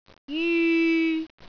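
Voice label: "jyu3", Cantonese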